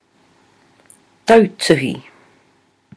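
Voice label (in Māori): "tautuhi", Māori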